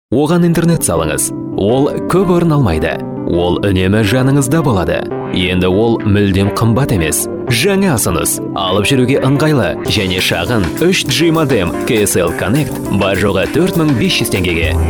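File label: Kazakh male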